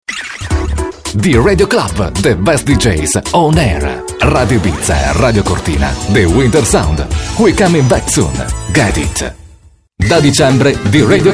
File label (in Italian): ITALIANO DINAMICO